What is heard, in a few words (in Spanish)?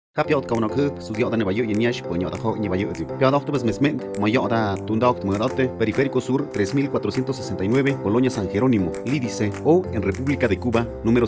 Spot mixe; Campanas; CNDH